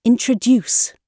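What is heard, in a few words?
"introduce", British English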